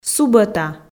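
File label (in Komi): "субӧта", Komi, female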